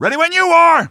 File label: ready when you are, male; Half-Life 2